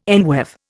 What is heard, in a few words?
"enweave", Indian